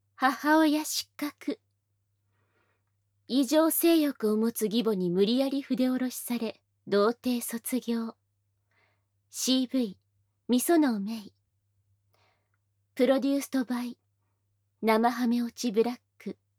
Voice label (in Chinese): 标题 朗读; 无SE; 母亲失格 ～被拥有异常性欲的继母强迫脱处、处男毕业～